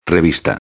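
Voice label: "revista", Spanish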